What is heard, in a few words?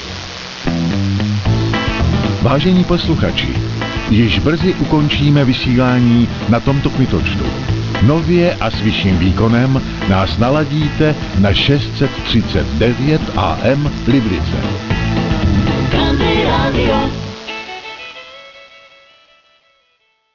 country radio ending announcement; czechia